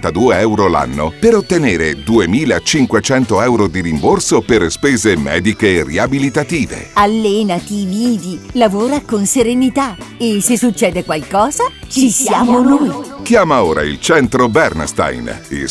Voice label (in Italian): spot radio polizza infortuni